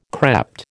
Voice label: "crept", American